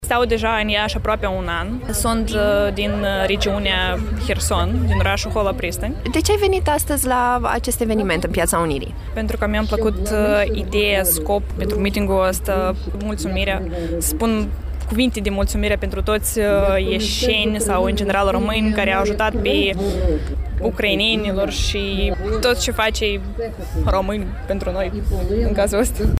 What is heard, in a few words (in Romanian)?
vox pop